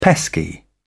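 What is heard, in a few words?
"pesky", British English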